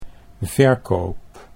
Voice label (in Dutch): "verkoop", Dutch